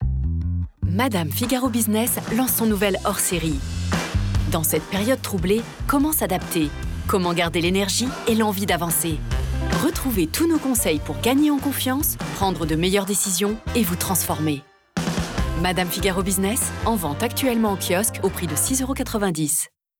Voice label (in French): Spot radio; MADAME FIGARO BUSINESS